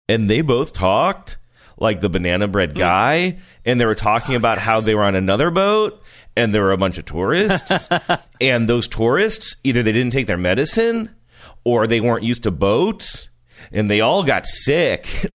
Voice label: series of sentences ending vocal uptick